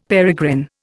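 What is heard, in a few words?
"peregrine", Indian English; Dictionary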